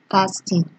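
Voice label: "casting", Nigerian Pidgin, female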